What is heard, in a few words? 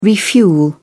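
"refuel", English, uk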